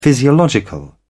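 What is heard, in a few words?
"physiological", British English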